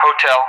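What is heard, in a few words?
"Hotel", English; Alphabet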